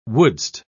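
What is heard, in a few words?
"wouldst", British English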